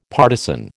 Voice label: "partizan", American

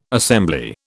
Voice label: "assembly", British

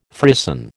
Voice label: "frisson", American

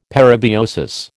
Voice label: "parabiosis", American

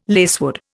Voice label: "lacewood", Indian

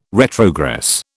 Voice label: "retrogress", British

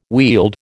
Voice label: "wield", American

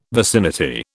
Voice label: "vicinity", British

